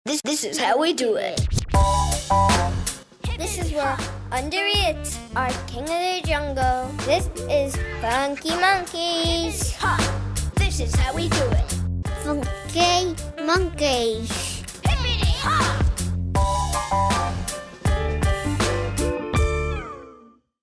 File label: GIRL, Jingles; Funky Monkeys